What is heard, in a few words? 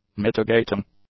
"mitigating", English; adjectives